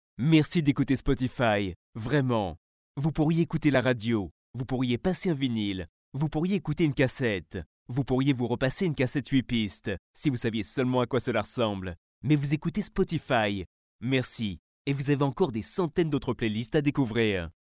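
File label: French France, male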